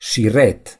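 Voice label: "shoelace", Romanian; gcompris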